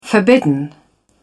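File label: "forbidden", en, uk British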